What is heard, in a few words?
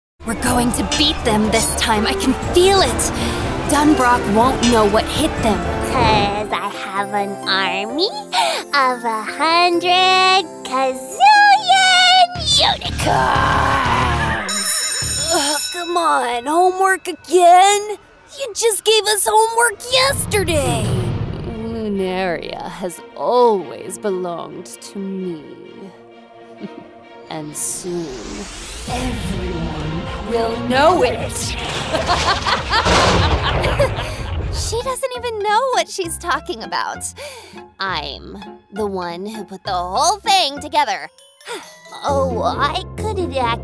American English Character